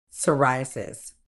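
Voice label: "psoriasis", medical English, British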